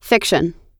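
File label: "fiction", US English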